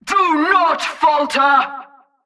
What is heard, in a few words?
Female Chokepoint Line, dialogue; NPC; Heist Cultist Chokepoint